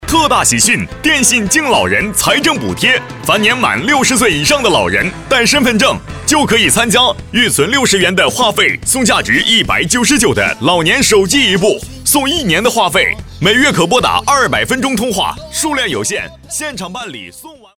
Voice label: 男声电信样

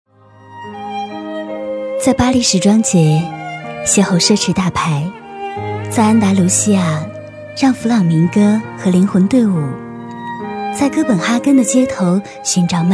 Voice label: 唯美广告

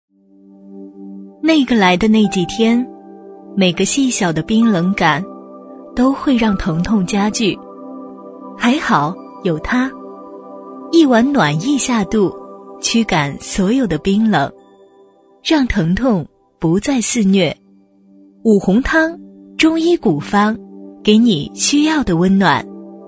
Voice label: 女声【广告温馨】; 五红汤

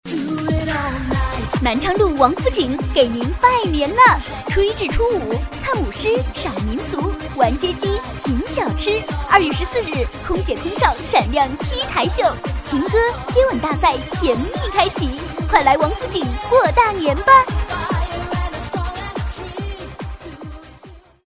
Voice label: 促销

